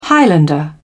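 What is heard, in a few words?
"highlander", English, British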